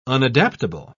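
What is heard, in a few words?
"unadaptable", British English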